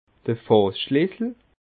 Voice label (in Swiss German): "fasschlissel", alsace